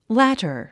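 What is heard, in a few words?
"latter", English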